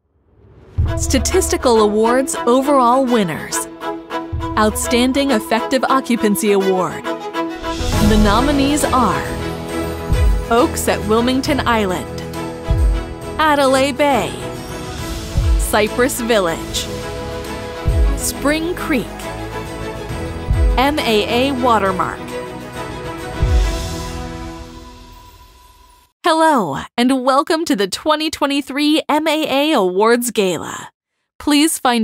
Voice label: english american announcements; Englisch (US); Vereinigte Staaten; Columbus, Oh